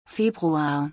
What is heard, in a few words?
February in German